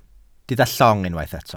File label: "llong", welsh